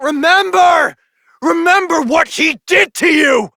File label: Adult Op, en; Warframe; New War